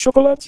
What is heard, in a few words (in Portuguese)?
chocolate 250Hz 300Hz rapido